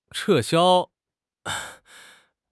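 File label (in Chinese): "撤销", Chinese; HSK6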